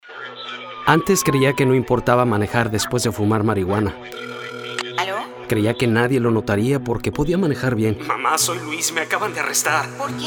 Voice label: drug impaired spanish radio spot testimonio